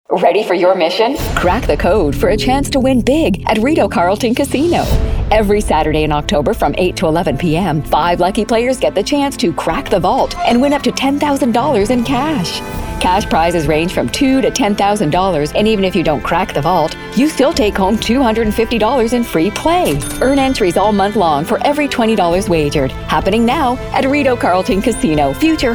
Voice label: Radio Ad; Rideau Carleton